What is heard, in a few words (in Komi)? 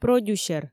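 "продюсер", Komi, female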